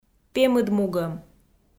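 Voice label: "пемыдмугӧм", Komi, female; audio dict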